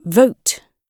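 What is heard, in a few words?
"vote", British English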